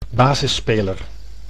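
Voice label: "basisspeler", Dutch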